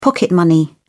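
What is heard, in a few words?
"pocket money", British English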